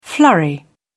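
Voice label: "flurry", British English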